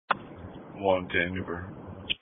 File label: Police Patrol 1 (Dispatch)